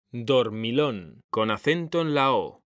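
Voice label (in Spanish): "dormilón", Spanish; mekanta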